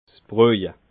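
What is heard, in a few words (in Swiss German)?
"broje", Alsatian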